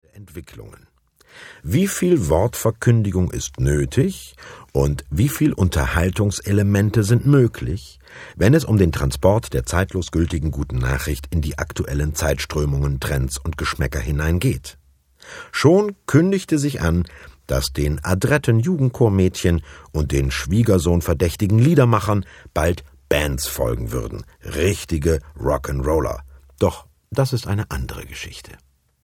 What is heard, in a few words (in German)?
moderation